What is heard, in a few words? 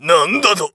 macho damage